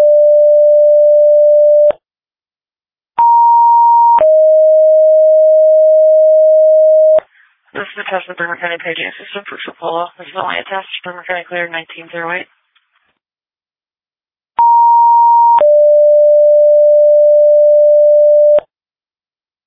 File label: Tripoli Fire, dispatch